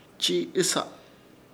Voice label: "Chiiɨsa", Ishir Chamacoco; apartarse

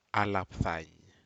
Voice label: Aneityum collect raw food

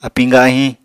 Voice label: "apiñ gahiñ", Apatani

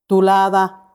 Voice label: "tulaada", peach, macuiltianguis

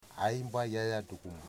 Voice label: my big pig, Wamut

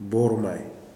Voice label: "blood", kuman

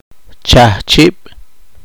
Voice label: poqomchi; colador

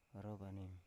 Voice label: "orovanim", Biak

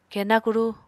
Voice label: "kena kuru", Apatani

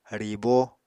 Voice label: "ribo", Apatani

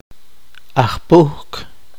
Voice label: poqomchi; repartidor

